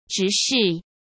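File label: "直视", Mandarin Chinese; chinese-flashcards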